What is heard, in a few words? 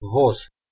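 "voz", Bosnian Croatian Montenegrin Serbian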